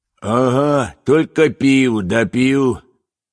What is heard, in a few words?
yes, villager; mob; Minecraft; Votive World